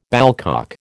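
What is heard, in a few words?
"ballcock", American English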